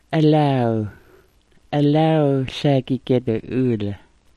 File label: "alaaw", smalgyax